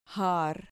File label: "jar", Spanish syllable